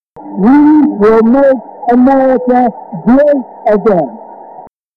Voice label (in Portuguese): espiar vibracao voz